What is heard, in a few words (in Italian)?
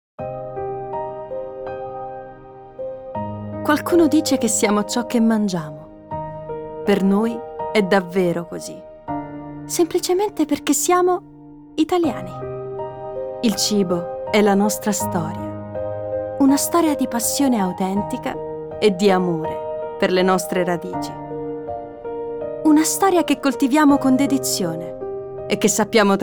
Spot emozionale, voice-over